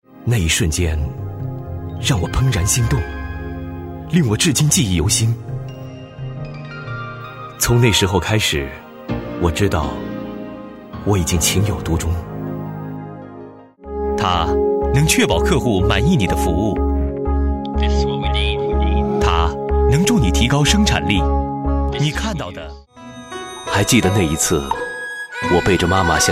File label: voices CHINESE male adult MANDARIN; KER-SOUND